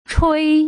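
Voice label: "chui", Putonghua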